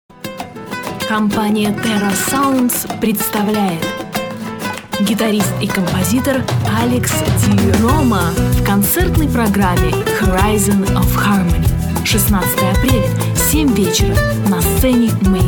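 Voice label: Russian commercial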